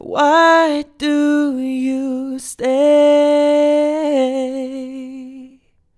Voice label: Why do you stay, Male Vocals, Phrases - C Major 150BPM; Ghosthack; Free Male Vocals and Atmospheres